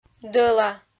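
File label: "дӧла", Komi, female; kpv audio dict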